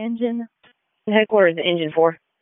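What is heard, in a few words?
Fire Department HQ Dispatch; RFD; wake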